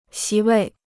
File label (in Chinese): "席位", Chinese